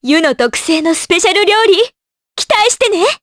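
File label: vox, voices, Japanese; Juno; kingsraid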